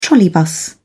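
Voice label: "trolleybus", British English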